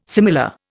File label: "similar", Indian